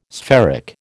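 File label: "spheric", American English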